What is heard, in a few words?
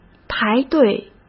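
"排队", Mandarin Chinese; hsk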